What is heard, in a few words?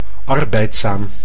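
"arbeidszaam", Dutch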